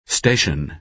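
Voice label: "station", American English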